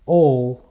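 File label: "all", English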